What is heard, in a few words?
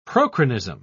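"prochronism", English, British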